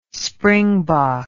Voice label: "springbok", english